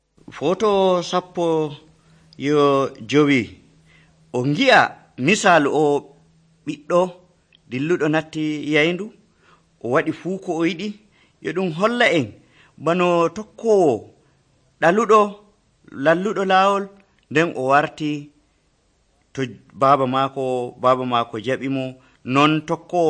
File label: fulfulde, drama; fulfulde adamawa abc nt drama